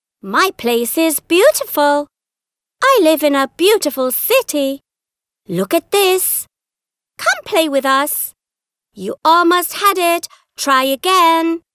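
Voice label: British English female children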